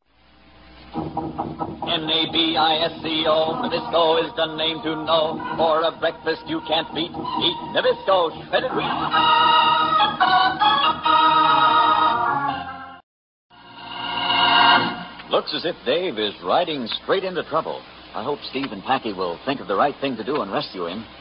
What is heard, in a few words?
Vintage Commercials; Nabisco